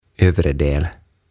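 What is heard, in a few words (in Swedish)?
"övre del", Swedish; Yläosa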